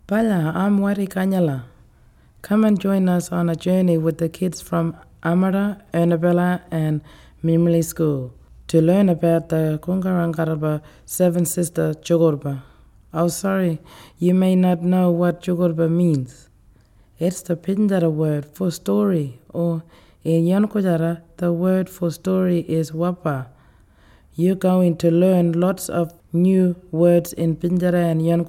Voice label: English Translation